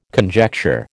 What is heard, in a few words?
"conjecture", American